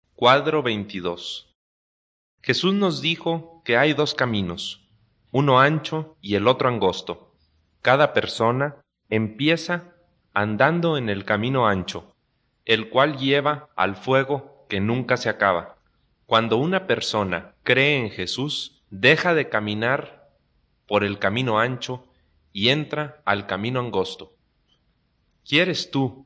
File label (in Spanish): good news spanish mexico